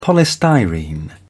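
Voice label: "polystyrene", British English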